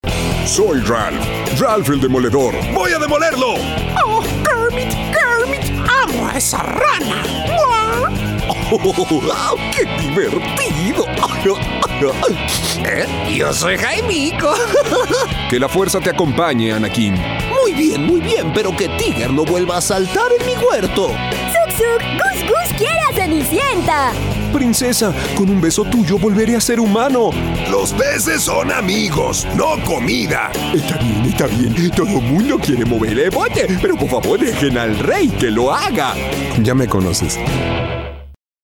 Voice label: spanish mexican animation